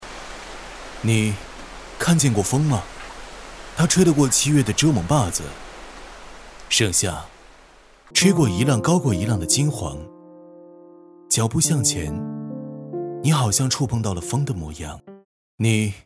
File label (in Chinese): 男, 独白; 追风